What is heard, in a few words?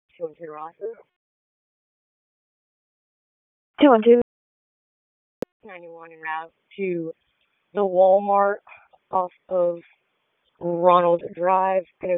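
Police Dispatch; Raleigh Police Northeast District Disp; wake; p25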